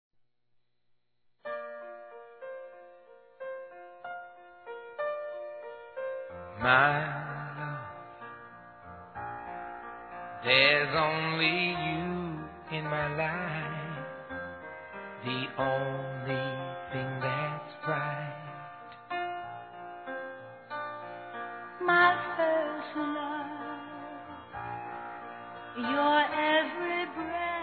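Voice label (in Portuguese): tenho ciúmes de vc, feminino; Telemensagens; Românticas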